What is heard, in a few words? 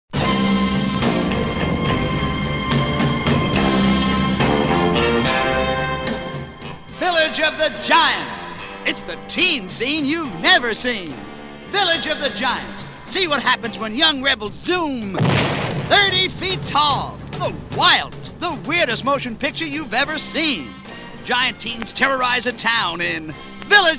radio ad